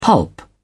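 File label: "pulp", British English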